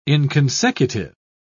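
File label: "inconsecutive", British English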